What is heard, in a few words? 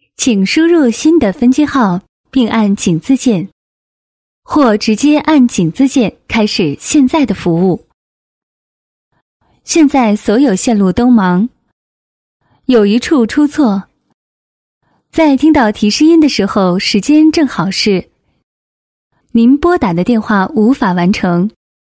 IVR Mandarin Female; China